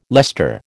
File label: "Leicester", American